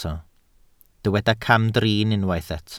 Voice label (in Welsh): "camdrin", Welsh